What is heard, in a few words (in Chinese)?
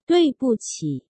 "對不起", 中国語; taiwandetukauchinese